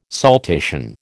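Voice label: "saltation", American English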